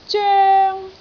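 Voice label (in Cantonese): "zoeng1", Cantonese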